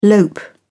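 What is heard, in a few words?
"lope", British English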